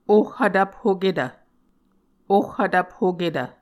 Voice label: "ohhvtvphoketv", Muscogee; Mvskoke Words with Repeated Consonants; Martin, Jack B., and Margaret McKane Mauldin. 2023. A Dictionary of Creek/Muskogee